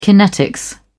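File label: "kinetics", British English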